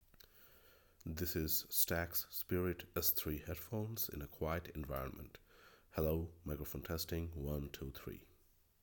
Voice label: Stax Spirit Quiet

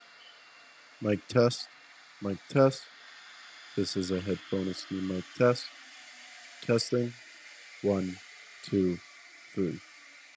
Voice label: mic test in noisy environment

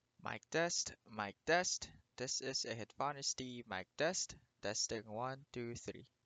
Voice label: HyperX Cloud II Clean

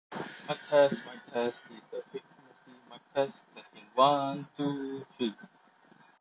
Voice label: Astrotec S80 Plus Noisy

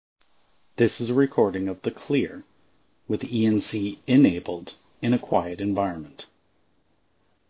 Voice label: Quiet ENC; Elevoc Clear

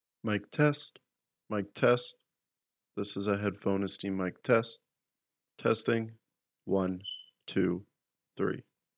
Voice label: G Pro X mic test quiet environment

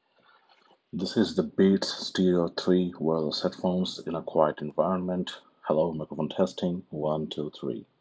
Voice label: quiet; Beats Studio3

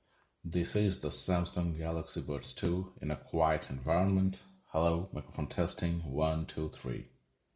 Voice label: Buds2 quiet

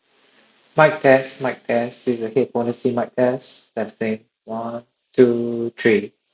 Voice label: quiet; SoundLiberty 79

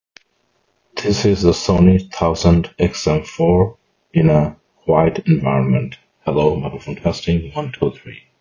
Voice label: quiet; Sony WF 1000XM4

